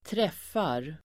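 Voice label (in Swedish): "träffar", Swedish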